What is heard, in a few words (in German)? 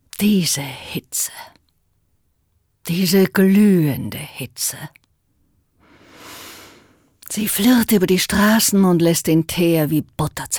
Schauspiel Neutral